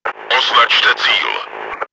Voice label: combat reserve, cz; Označte cíl!; Czech; Обозначьте цель!; World of Tanks